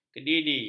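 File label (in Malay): "kedidi", Malay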